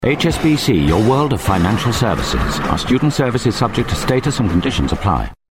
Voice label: Commercial; Hsbc endline; Vocal Point

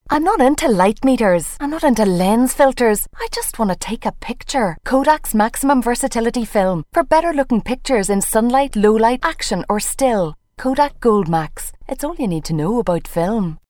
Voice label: Commercial; Kodak; Vocal Point

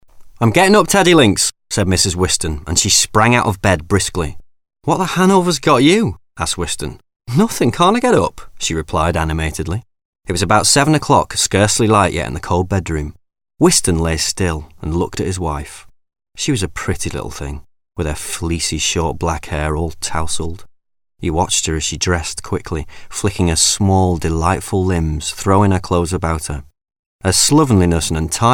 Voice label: Book Reading

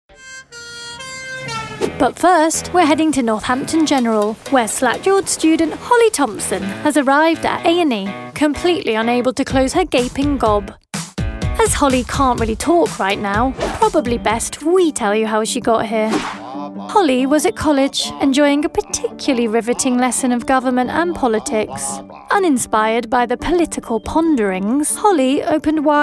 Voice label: Narrative; Vocal Point

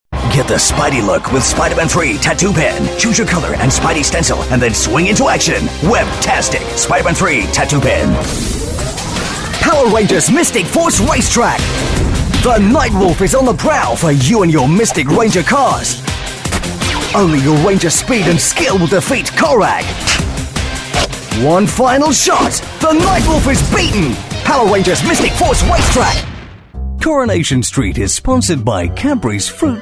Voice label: Commercials

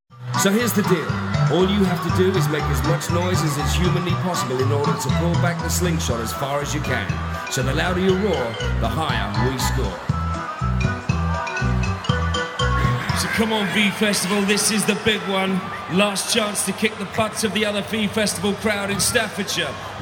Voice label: Live Hosting; V Festival; Vocal Point

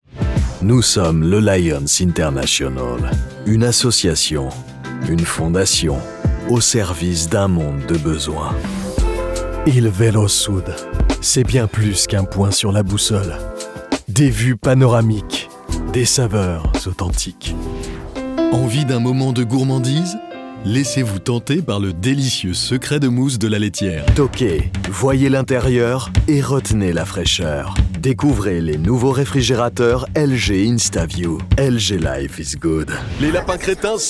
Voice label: French Commercials; Vocal Point

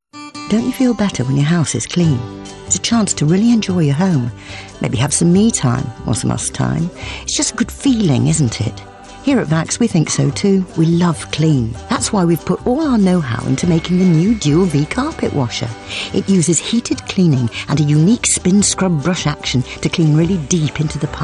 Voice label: Commercial; Vax; Vocal Point

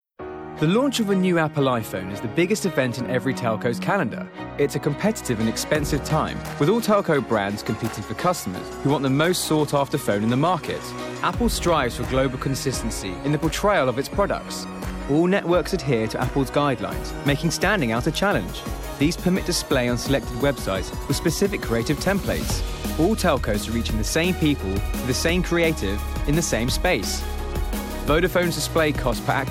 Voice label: Corporate Mix

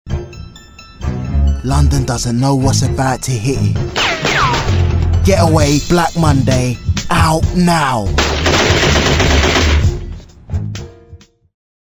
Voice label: Commercial; The getaway; Vocal Point

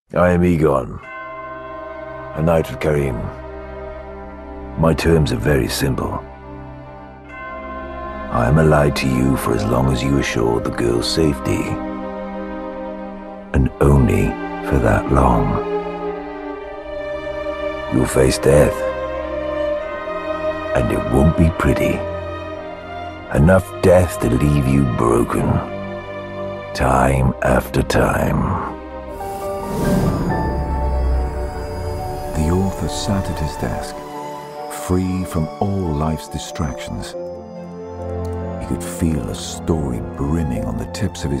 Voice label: Game Voices

